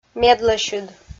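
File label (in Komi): "медлӧсьыд", Komi, female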